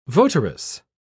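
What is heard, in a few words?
"votaress", British English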